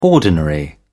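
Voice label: "ordinary", English, British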